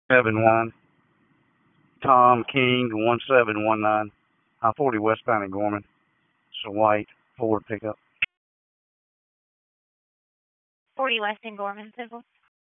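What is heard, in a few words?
Dispatch, p25; WSO DISP 1; wake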